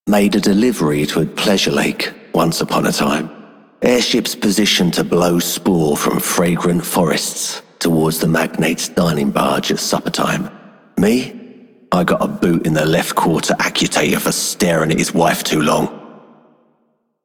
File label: Male Pilots, Male One, en; Dialog; Warframe; Solaris Venus